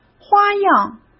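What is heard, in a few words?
"花样", Mandarin Chinese; chinese-flashcards; hsk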